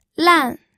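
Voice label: "lan", fourth tone, pinyin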